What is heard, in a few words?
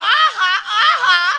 "uh huh uh huh", cartoon; ooh ahh; uh um